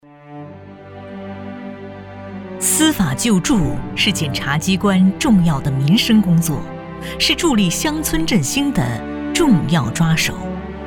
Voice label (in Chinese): 女 党政专题 正式大气 检察院汇报